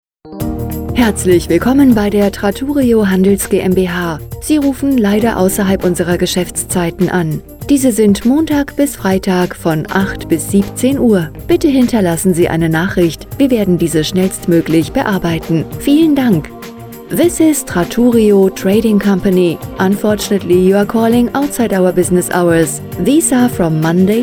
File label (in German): Ansage de en